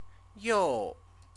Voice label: "you", Chinese; again; adverb

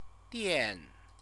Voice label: "dian", Chinese; CTCFL; University of Oxford

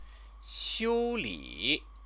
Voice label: "xiuli", Chinese

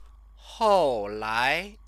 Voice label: "hòulái", Chinese

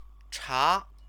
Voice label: "cha", Chinese; 茶; tea; noun

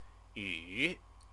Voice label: "yu", Chinese

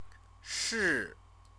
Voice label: "shi", Chinese

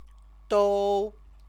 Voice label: "dou", Chinese

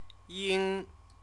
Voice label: "ying", Chinese

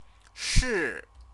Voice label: "shi", Chinese

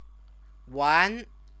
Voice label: "wan", Chinese

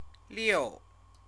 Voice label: "liu", Chinese

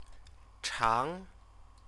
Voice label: "chang", Chinese

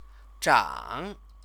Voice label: "zhăng", Chinese; grow [for appearance] verb

